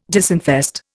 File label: "disinfest", Indian